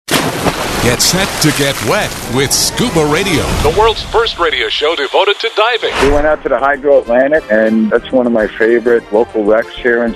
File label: Promo